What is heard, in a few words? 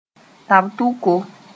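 "tab-tʋko", Mooré; tobacco-pouch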